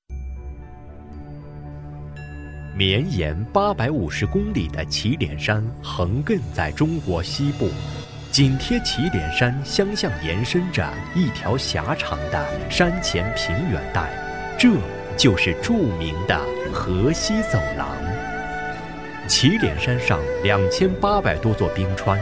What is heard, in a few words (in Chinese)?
男, 纪录片, 河西走廊, 人文历史